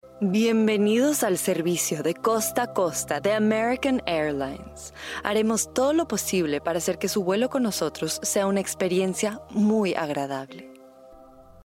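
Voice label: Latin American Spanish commercial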